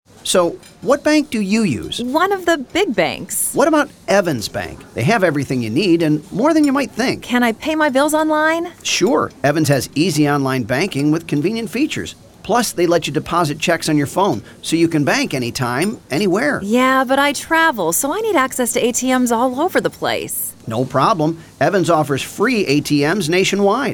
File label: Radio, VO; radio campaign; Evans Bank